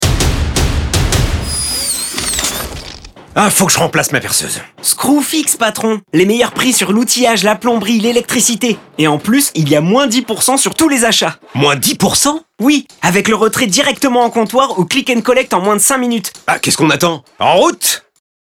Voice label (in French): doublage perceuse; voix-off; SCREWFIX